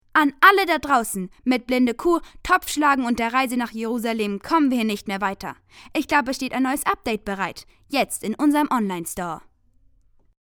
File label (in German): Spot, maedchen